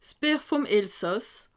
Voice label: "bier", alsace

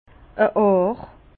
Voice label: "ar", Bas Rhin; vin; un are

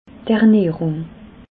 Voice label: "ernahrung", alsace

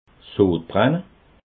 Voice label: "sodbrenne", alsace

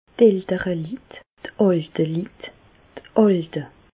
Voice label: "lit", Alsace, Bas Rhin; personnes-âgées

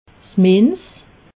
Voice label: "minz", alsace

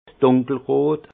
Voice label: "dunkelrot", alsace; vin; rouge foncé

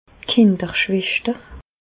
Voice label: "kinderschweschter", Bas Rhin; medecine; puériculture

